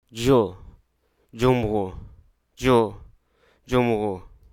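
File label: Hruso Aka; chicken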